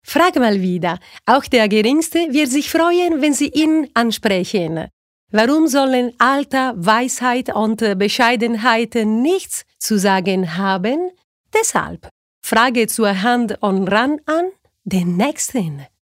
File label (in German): Werbung Dynamisch